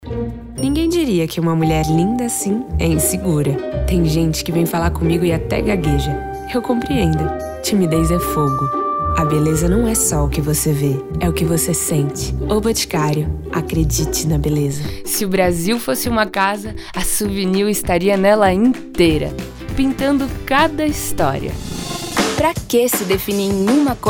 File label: Natural